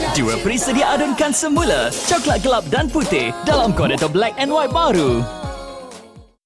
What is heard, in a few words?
tvc; Walls